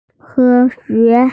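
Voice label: "science", Mandarin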